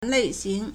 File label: "lei4xing2", Chinese